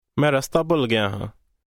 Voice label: way path phrase, punjabi; English: way/ path